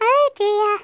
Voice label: "Oh dear", English speech